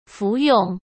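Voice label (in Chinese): "服用", Mandarin Chinese; chinese flashcards; hsk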